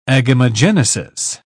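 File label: "agamogenesis", British English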